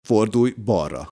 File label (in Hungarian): "balra", gps